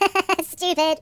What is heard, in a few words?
"Stupid", voices; hedgewars